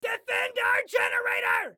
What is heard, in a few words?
Defend Generator, Excited Guy